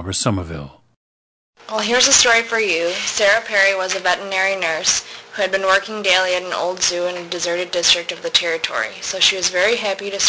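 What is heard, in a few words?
michigan, International Dialects of English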